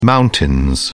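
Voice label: "mountains", British English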